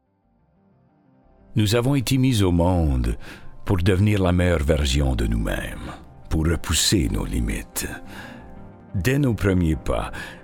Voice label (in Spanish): bilingue; Reebok